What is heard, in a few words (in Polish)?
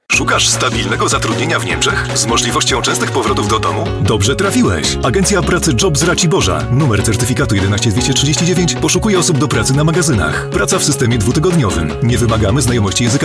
reklama; produkcja dzwiekowa